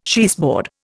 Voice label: "cheeseboard", Indian

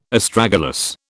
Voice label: "Astragalus", British

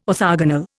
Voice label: "orthogonal", Indian

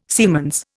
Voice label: "siemens", Indian

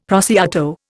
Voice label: "prosciutto", Indian; Dictionary

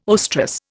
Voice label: "oestrus", Indian

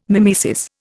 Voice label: "mimesis", Indian

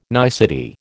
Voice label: "nicety", American

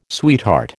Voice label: "sweetheart", American

